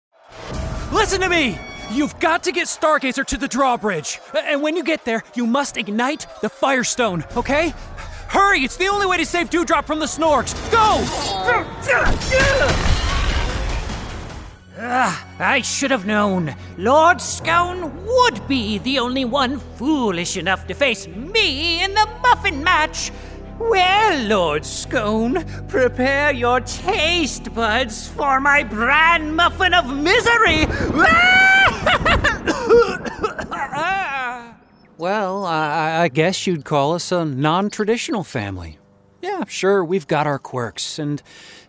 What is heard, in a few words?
Animation